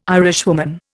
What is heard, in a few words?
"Irishwoman", Indian